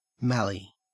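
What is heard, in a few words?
"mallee", Australian English; pronunciations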